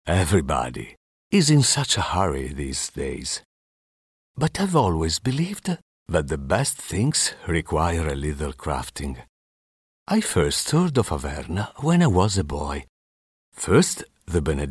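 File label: male, Italian, accented English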